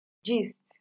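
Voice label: "gist", Nigerian Pidgin, female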